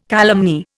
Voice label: "calumny", Indian

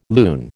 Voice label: "loon", American

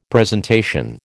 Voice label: "presentation", American

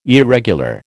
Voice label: "irregular", American

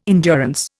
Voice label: "endurance", Indian

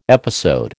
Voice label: "episode", American

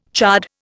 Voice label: "chard", Indian

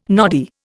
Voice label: "noddy", Indian

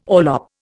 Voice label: "orlop", Indian

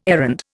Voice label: "errand", Indian

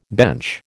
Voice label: "bench", American

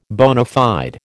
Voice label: "bona fide", American

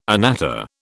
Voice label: "anatta", British

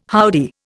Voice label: "howdy", Indian

